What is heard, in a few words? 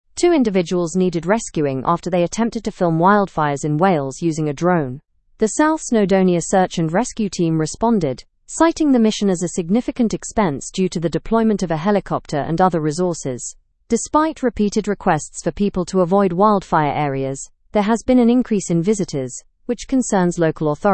english news upper intermediate; wildfire dangers and drone filming in wales